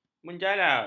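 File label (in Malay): "menjalar", Malay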